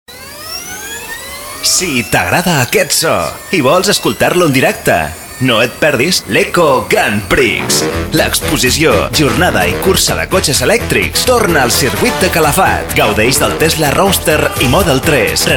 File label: Radio ad